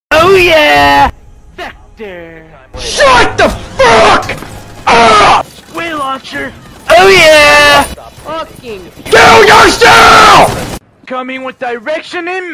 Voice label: loud stfu; vector